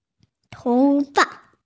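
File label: "hair", Mandarin, hearing-impaired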